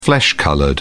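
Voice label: "flesh-coloured", British English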